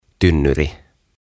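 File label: "Tynnyri", Finnish; Barrel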